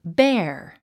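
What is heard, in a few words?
"bear", English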